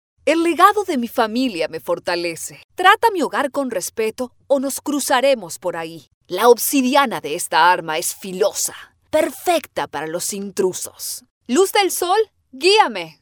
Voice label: videogames latam